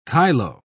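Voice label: "kyloe", British English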